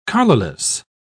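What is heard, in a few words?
"collarless", British English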